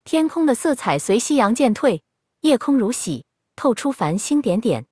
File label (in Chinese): zh-CN; iOS 26